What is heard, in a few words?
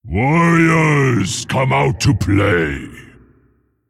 Male Mogu Play